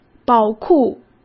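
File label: "宝库", Mandarin Chinese; chinese-flashcards; hsk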